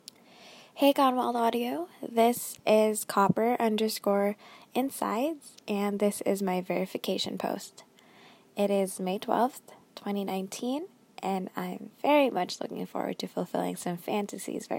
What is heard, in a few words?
Verification, F